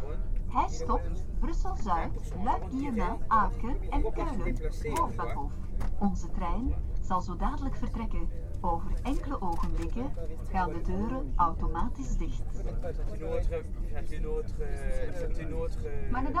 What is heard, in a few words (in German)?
mehrsprachige zugansage im thalys auf der fahrt von paris nach koln; gard du nord von paris